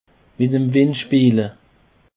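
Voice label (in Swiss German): "windspeele", Alsatian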